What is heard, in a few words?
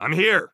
reporting in, heavy; vo; Counter Strike Model Pack; CS:GO; phoenix coop